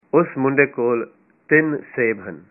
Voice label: he has three apples, punjabi